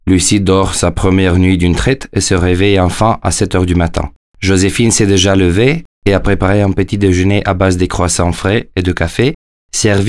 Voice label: voce franceza

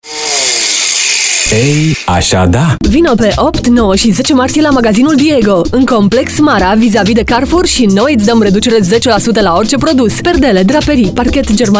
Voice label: spot; Rock; Sounds Design

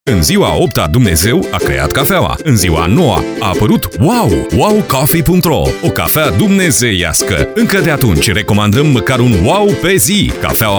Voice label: spot

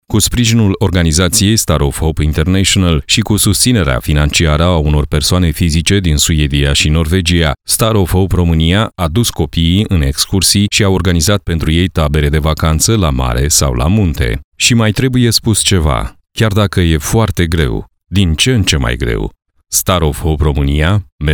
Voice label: voce naratie

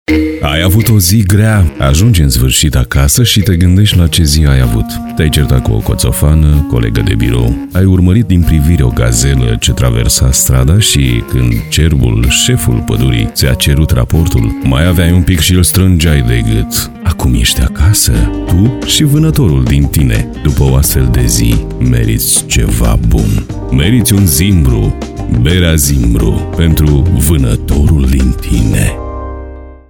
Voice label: reclama audio; sounds design; zimbru

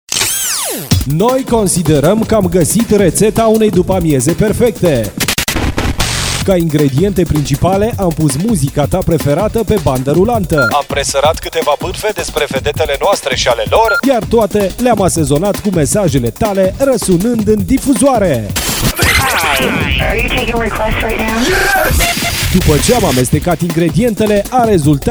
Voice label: voice promo

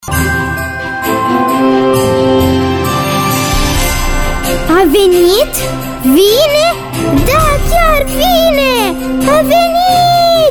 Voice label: voce copil; DEMO VOICEOVER

